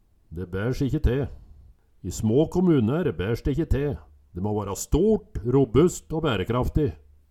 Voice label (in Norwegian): "de baers ikkje te", Numedalsmål